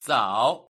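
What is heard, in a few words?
"zao", Chinese pronunciation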